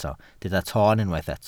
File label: "toon", Welsh